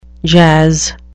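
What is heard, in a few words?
"jazz", English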